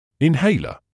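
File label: "inhaler", British English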